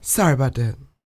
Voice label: DIALOG, R&B Dance, FEM. SPOKEN; Spectrasonics Vocal Planet